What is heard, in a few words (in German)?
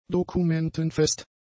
"dokumentenfest", German; adjectives